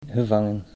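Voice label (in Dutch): "gevangen", Dutch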